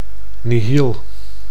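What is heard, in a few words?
"nihil", Dutch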